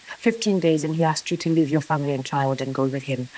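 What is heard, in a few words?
voice acting, es, female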